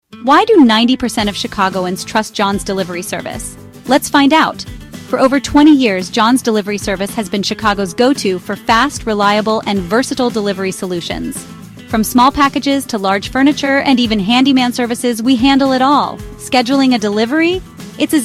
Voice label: Promo